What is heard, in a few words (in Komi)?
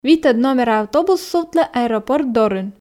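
"витӧд номера автобус сувтлӧ аэропорт дорын", Komi, female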